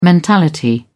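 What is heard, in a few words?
"mentality", British English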